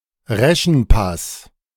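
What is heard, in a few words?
"Reschenpass" German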